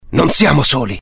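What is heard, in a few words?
curious, Italian; mohaa; dialogue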